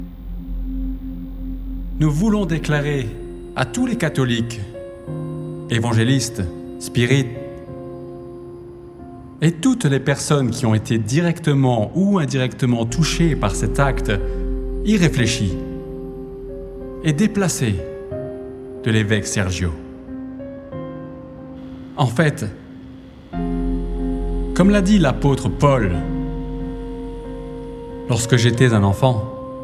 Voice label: french tv shows

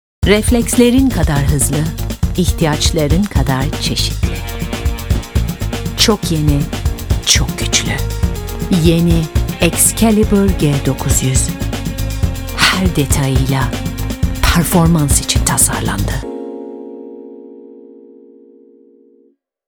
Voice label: turkish automotive; voix off bilingue anglais/turc

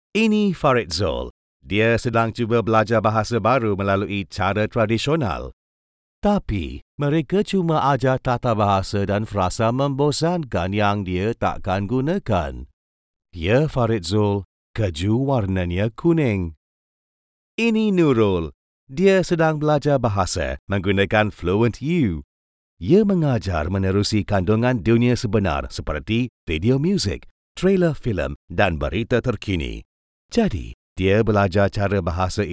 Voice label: malay training e-learning

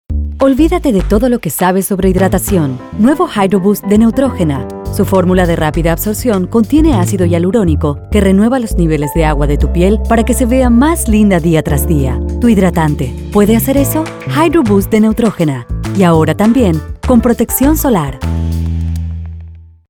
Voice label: spanish latin american neutral commercial

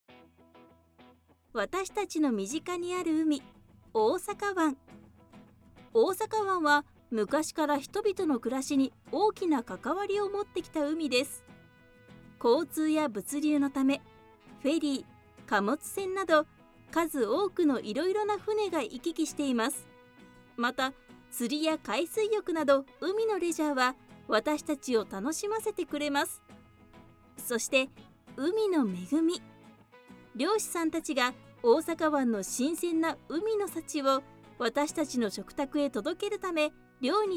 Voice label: japanese narration

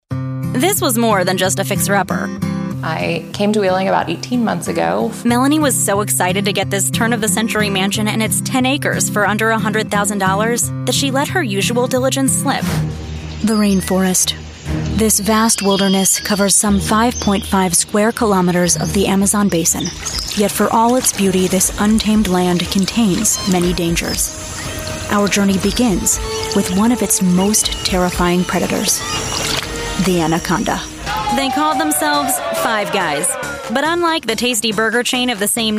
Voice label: english american tv shows

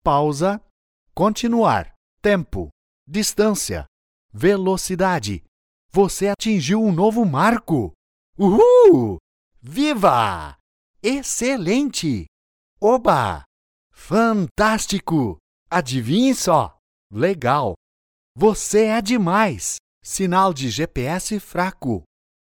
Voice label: portuguese brazilian video games

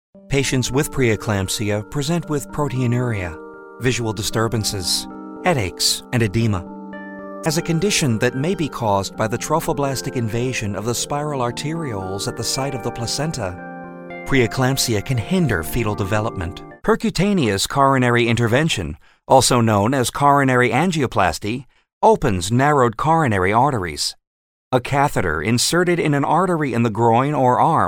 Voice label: english american

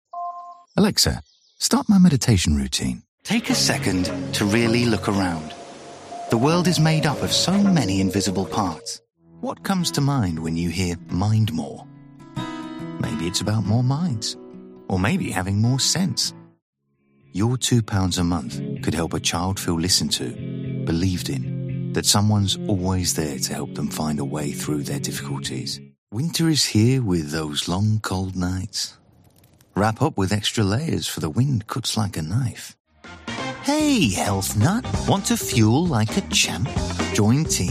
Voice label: english british commercial

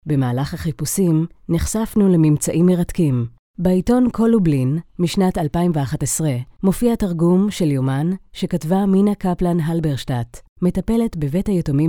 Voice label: hebrew documentaries

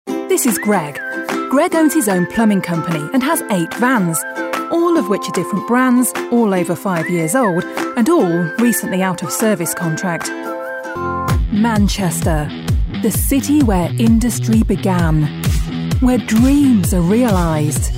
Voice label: english british narration

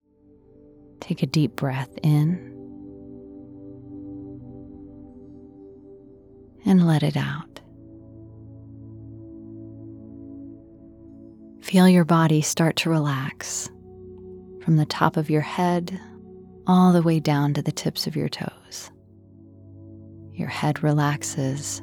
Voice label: english american others; Synchronsprecherin; Heimstudio in Chattanooga

